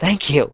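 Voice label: "thank you", male voice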